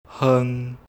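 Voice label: "heung1", Teochew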